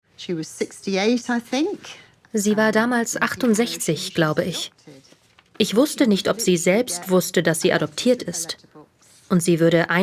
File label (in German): Voice Over erzaehlend